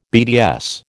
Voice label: "BDS", American